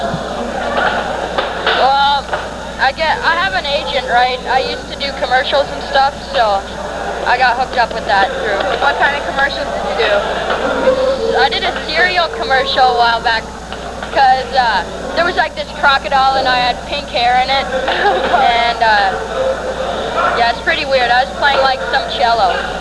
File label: commerical; telling me a little about a commercial he was in